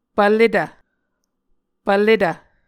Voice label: "pvletv", Muscogee

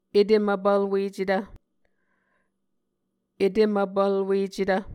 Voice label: "etem vpvlwecetv", Muscogee

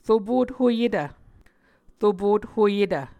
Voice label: "ropothoyetv", muscogee

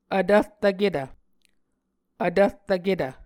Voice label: "vtvrtvketv", Muscogee

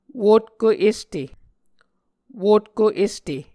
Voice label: "wotko este", Muscogee

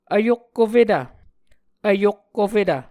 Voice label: "vyokkofetv", Muscogee

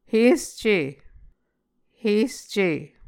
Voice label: "hersce", Muscogee

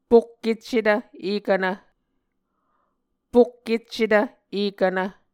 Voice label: "pokkeccetv ekvnv", Muscogee; Mvskoke Words with Repeated Consonants; Onvkv Ohhvyayices; A Dictionary of Creek/Muskogee; SIL International

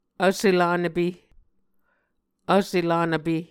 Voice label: "Vsse Lanvpe", Muscogee

